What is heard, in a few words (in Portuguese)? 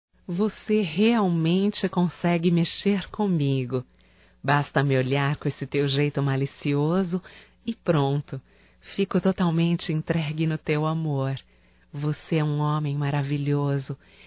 ROMANTICA(F), Românticas, fem